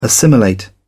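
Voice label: "assimilate", British English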